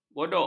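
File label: "bodok", Malay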